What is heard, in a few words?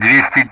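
Speech Sound Russian; DCS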